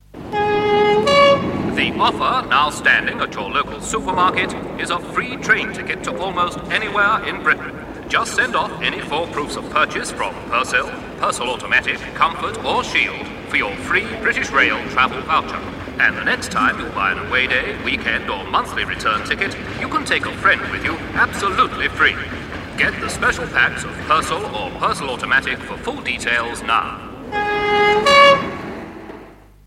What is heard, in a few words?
Radio Hallam Advert; Persil Free Train Tickets